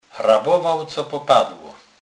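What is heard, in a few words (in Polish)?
"rabowac", Kurpie dialect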